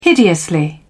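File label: "hideously", English, British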